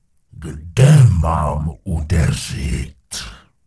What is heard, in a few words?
Gnome Male Charge; wowpreklad; czwow; GnomeVocalMale